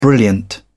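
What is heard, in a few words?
"brilliant", British English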